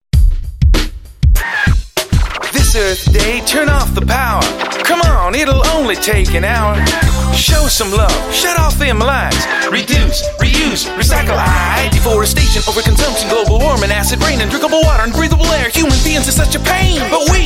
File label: US English; Fish N Co EarthHour